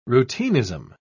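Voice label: "routinism", British English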